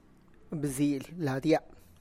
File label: "bza’an", My skin hurt to touch, Tlacochahuaya Zapotec